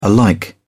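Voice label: "alike", British English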